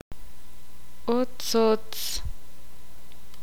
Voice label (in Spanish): "casa", ixil